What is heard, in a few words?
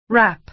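"rap", American English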